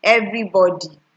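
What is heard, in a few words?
"everybody", Nigerian Pidgin, female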